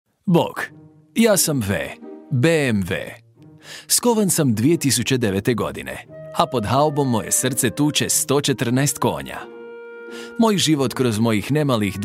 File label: elegant, croatian